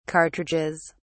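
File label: "cartridges", English, British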